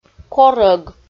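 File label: "корӧг", Komi, female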